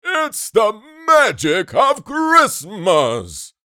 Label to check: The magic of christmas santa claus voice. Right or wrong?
Right